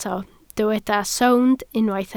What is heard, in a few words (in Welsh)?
"sownd" Welsh